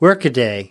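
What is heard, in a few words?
"workaday", US English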